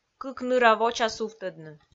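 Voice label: "кык ныра воча сувтӧдны" Komi, female; kpv audio dict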